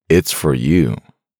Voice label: man its for you; voice-ringtones

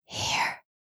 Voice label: asmr girl here; ringtone; asmr ringtones

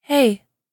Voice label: girl hey ringtone; notification sounds

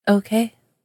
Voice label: ok, says the woman; voice-ringtones

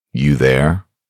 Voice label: man you there, male voice; message tones; ringtone

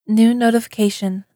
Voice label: new notification, female; message tones

